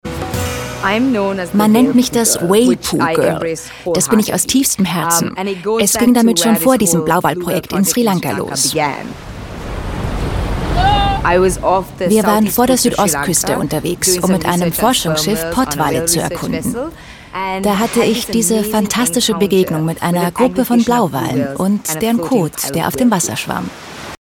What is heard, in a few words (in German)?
Doku Voiceover